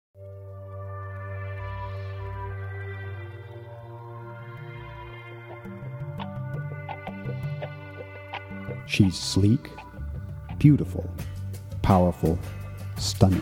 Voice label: Voice-Over; Body Tone Multimedia; Electra 4